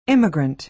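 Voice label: "immigrant", American English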